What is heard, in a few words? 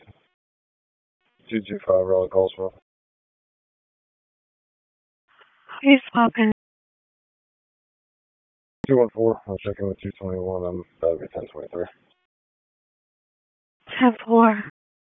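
Police District Dispatch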